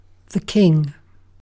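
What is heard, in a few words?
"king", British English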